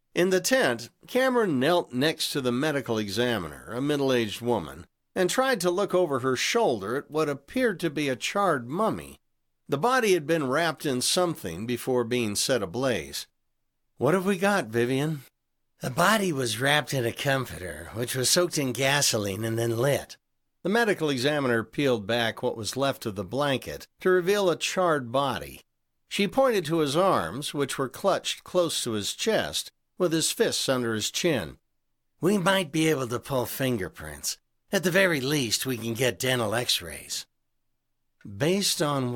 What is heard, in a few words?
Audiobook; Root of Murder